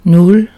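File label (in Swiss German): "null", Alsatian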